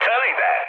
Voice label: bomb success, mood high, speech hun; War Thunder

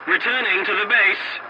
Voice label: voice message return to base, english

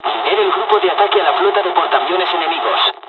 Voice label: fly, dialogs spanish; War Thunder

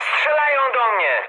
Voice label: aircraft damaged mood med, speech pol; War Thunder

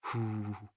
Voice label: scav mutter, voice; Escape from Tarkov; scav3

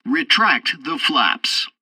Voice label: takeoff completed, english; War Thunder

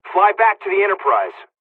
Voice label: evac, dialogs english; midway us; War Thunder

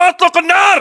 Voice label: order attack infantry, battlechatter, english

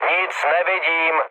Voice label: searchlite, speech cze, mood low; War Thunder

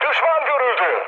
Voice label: enemy detected, mood high, speech tur

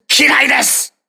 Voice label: voice message mine, crew ships japanese

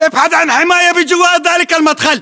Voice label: order cover doorway, battle chatter, english

